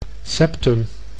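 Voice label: "septum", Dutch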